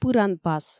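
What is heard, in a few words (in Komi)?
"пыранпас", Komi, female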